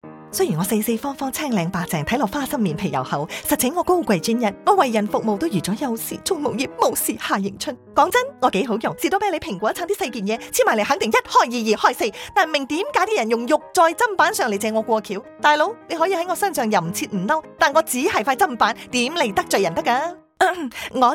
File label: Cantonese; KFC Chopping Board